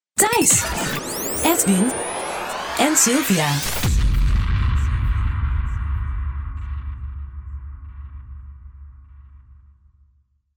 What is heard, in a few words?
voice over jingle